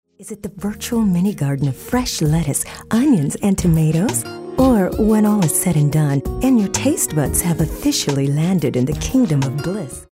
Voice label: sexy